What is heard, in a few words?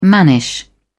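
"mannish", British English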